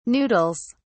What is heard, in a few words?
"noodles", en uk